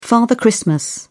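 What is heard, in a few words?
"father christmas", British English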